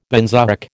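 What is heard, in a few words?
"benzoic", American English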